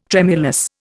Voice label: "tremulous", Indian